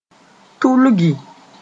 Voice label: "tulgi", moore